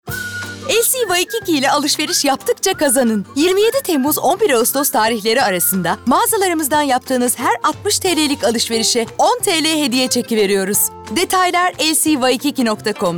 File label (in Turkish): Promosyon